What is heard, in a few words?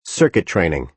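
"circuit training", American English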